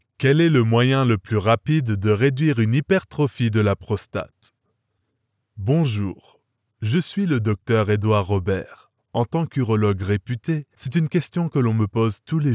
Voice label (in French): Homme Francais charismatique, narration voix off; masculine